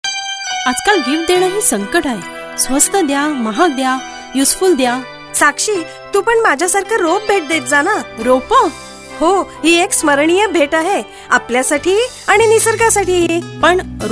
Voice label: Gift sapling Marathi

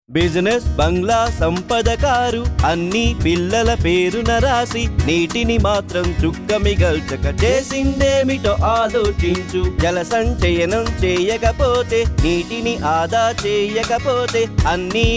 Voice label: water conservation telugu

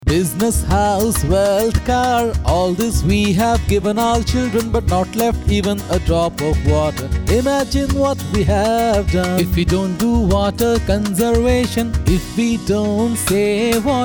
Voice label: eng; Water Conservation

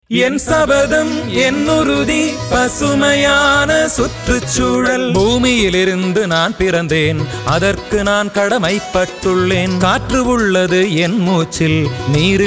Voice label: tamil

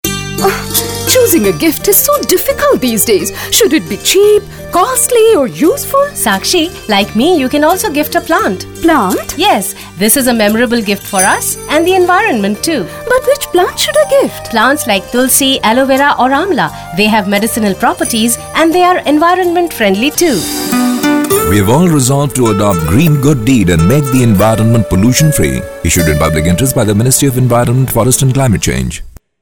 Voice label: Gift sapling English

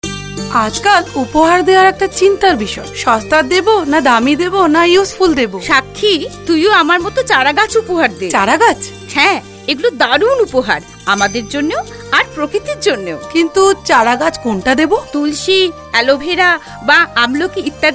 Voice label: Gift sapling Bengali

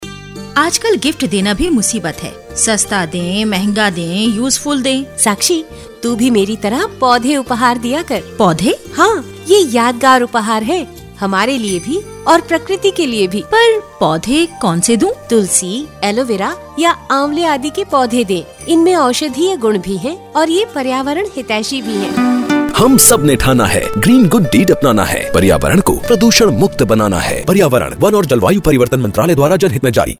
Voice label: Gift sapling Hindi

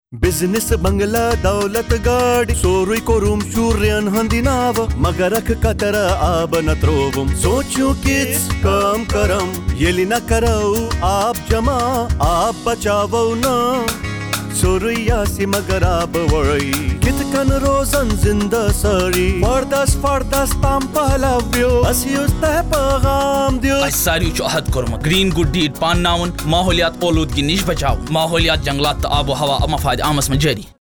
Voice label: kashmiri; Water Conservation

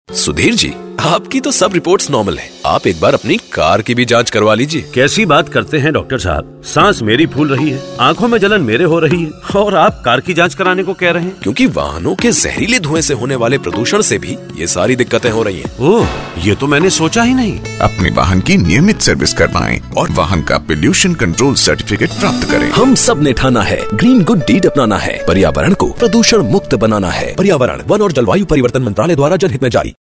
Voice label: Hindi; Air Pollution

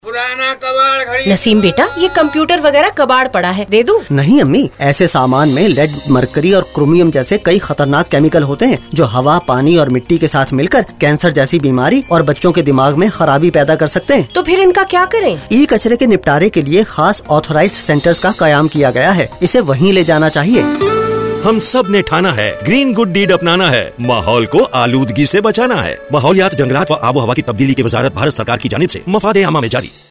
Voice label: E-waste Urdu

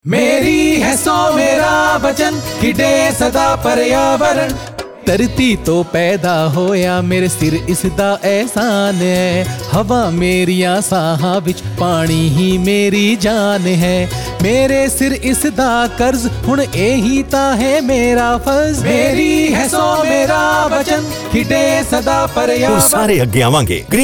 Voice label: punjabi

